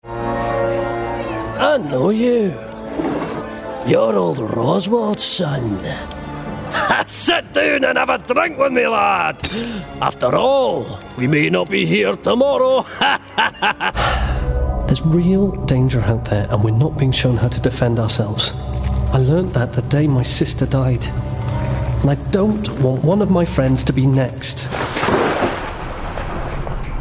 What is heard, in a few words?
Gaming Character Reel